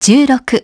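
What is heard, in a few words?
"ten six", English; iOS 4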